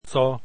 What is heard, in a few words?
esperanto alphabet c